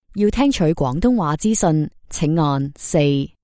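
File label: Chinese Cantonese female IVR; voice over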